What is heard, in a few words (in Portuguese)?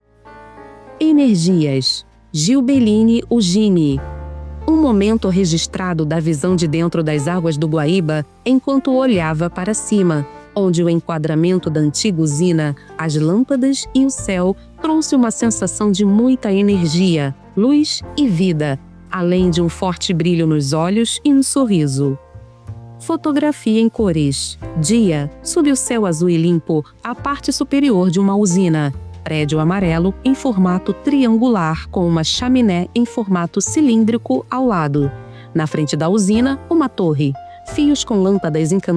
Audiodescrição; ENERGIAS